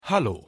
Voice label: "hallo", de